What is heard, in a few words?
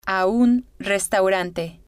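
"a un restaurante", spanish, female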